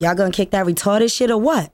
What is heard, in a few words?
ya'll going to kick that retarded shit or what; questions